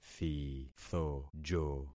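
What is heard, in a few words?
"thithojo", concatenated syllables; Affixing experiment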